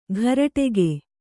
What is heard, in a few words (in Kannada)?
"ಘರಟೆಗೆ", kannada